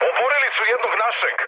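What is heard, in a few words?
Serbian aircraft destroyed mood high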